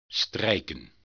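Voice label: "strijken", Dutch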